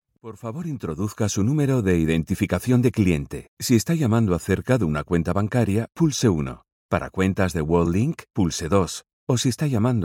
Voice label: spanish ivr